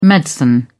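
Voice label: "medicine", English, British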